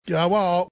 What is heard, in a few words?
Weird Noise (Yeah Wall!!!)